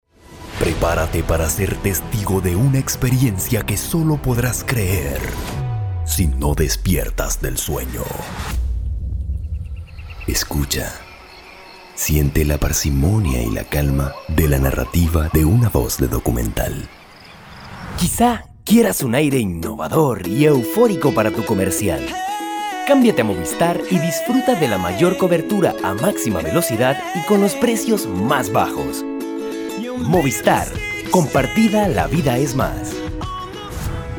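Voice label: generico, Venezuelan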